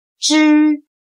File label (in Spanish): "知", Chino